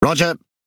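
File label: Pirate Speech Aye Aye, English pirate; World Of Warships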